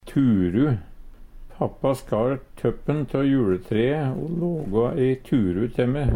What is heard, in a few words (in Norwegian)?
turu, Numedalsmål; Papa skar tøppen tå juletreet o lågå ei turu te me.